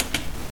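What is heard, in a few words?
"observance", US English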